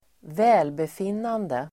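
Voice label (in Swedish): "välbefinnande", Swedish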